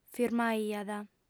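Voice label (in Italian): "firmaiat", lingua sarda, female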